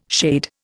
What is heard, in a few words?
"shade", Indian